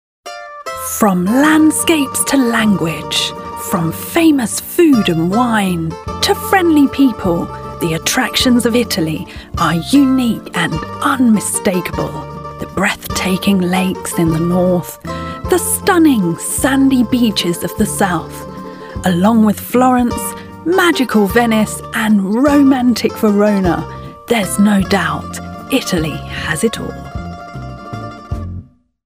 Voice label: Italy Travel, voiceover demos